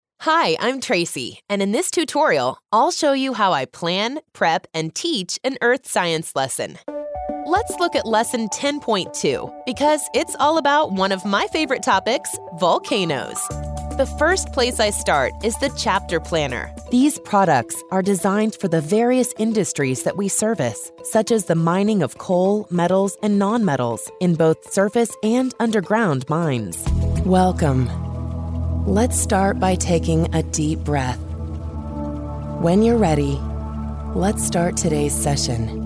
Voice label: english american e-learning

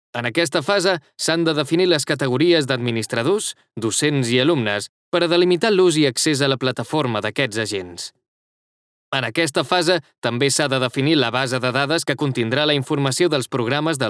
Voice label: catalan e-learning

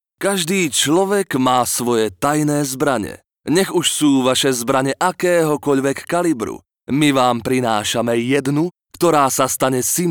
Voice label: slovak promos

